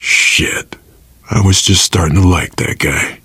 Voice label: grief manager, survivor voice; source engine; left 4 dead 2